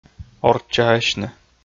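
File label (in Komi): "орччаасьны", Komi, male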